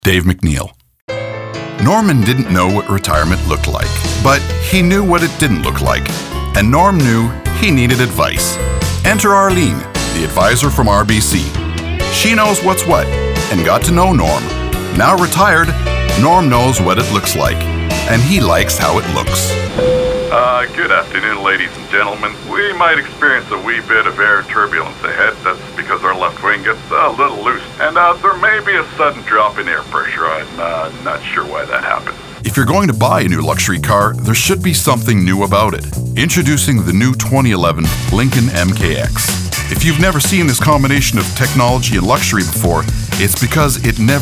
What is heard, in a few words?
commercial male